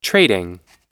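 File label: "trading" US English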